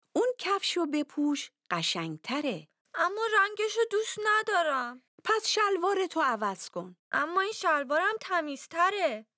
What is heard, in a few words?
Dialogue lesson Farsi